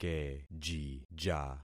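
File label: "kejija", concatenated syllables; Affixing experiment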